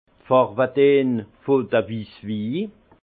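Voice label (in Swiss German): "wisswi", Alsace Haut Rhin; vin; teintes des vins blancs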